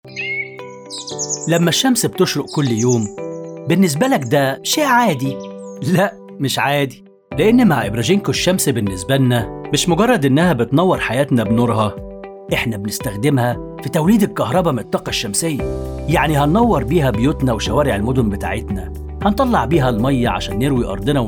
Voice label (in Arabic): الاعلان; ابراجينكو الفكره الجديده فى الاعلان